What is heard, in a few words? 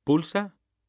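"pulsa", Spanish; mekanta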